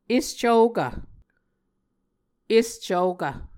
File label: "escvokv", Muscogee